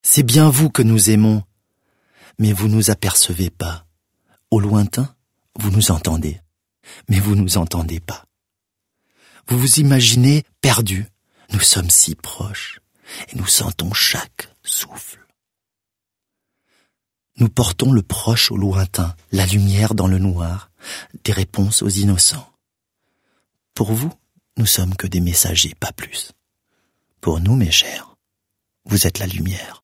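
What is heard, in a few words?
French; Französisch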